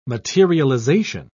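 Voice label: "materialization", British English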